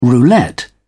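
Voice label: "roulette", British English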